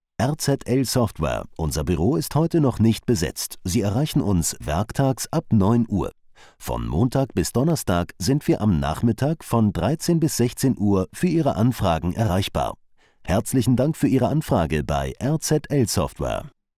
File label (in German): sparberg tk ansage technisch; LOFT VOICES